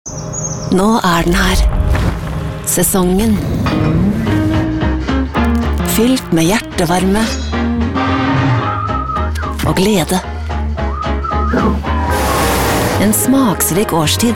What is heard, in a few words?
norwegian; professional voice over artist native in both German and Norwegian